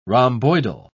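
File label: "rhomboidal", British English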